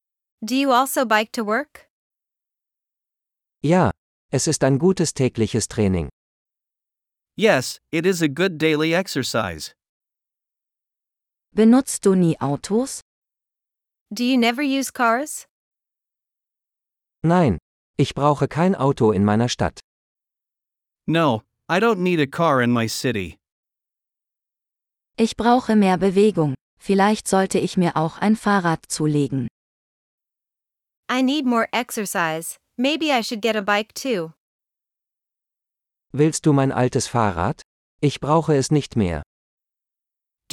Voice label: Biking - Dialogue (with translations), German; sustainability and climate change; de-en